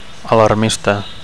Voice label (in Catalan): "alarmista", Catalan